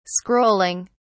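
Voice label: "scrolling", British English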